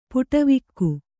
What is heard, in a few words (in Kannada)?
"ಪಡಿವಾಘೆ", Kannada